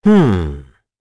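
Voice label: Vox Think, English; Mitra; kings raid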